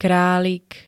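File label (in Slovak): "králik", Slovak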